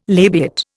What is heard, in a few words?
"labiate", Indian